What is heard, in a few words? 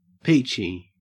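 "peachy" English, Australian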